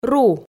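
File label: "ру", Komi, female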